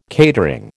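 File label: "catering", American English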